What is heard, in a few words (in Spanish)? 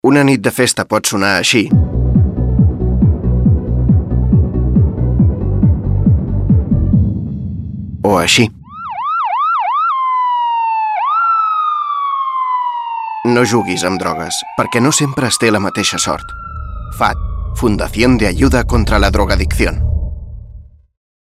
Campañas catalán